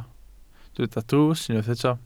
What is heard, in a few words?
"drws", Welsh